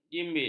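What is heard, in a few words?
"jimbit", Malay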